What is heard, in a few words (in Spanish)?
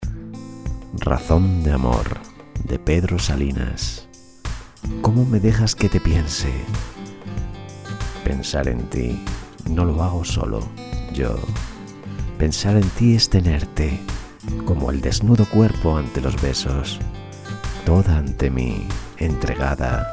poema romantico; pedro salinas; pensar